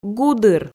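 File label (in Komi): "гудыр", Komi, female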